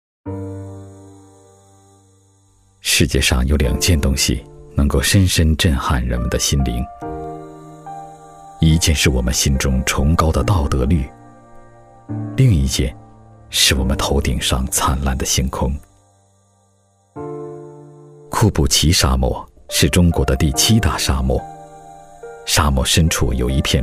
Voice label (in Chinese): 男 轻松自述; 库布齐沙漠